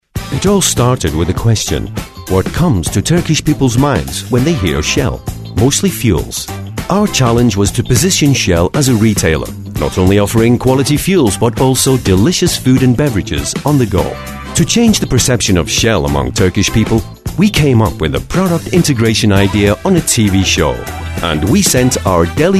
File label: english british voice over male